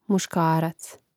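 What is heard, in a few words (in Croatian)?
"muškarac", Hrvatski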